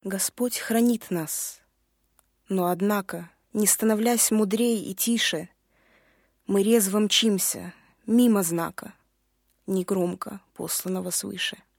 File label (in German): Russland W